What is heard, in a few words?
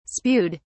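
"spewed", British English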